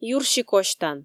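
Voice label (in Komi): юрси косьтан, Komi, female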